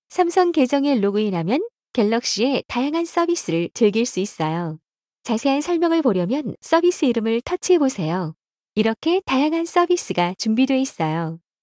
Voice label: samsung account start, ko; Samsung Galaxy S10; SuwScriptPlayer